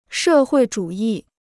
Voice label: "社会主义", Chinese

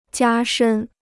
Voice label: "加深", Chinese

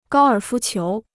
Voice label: "高尔夫球", Chinese

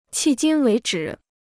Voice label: "迄今为止", Chinese

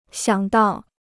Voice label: "想到", Chinese

